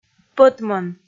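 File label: "пӧтмӧн", Komi, female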